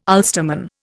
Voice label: "Ulsterman", Indian